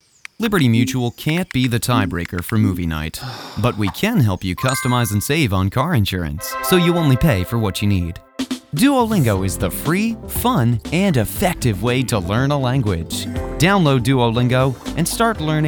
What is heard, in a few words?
Commercial Reel